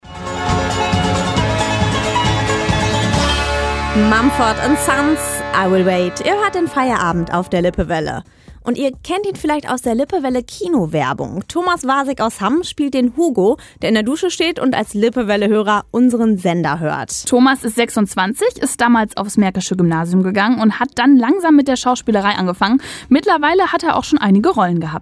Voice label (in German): Interview; Radio Lippewelle